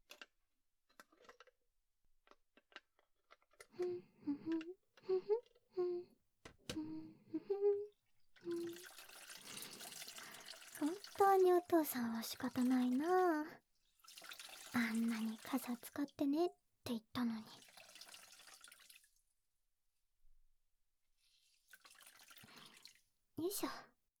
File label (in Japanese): ぐっすり眠れる看病ボイス, ASMR 癒やし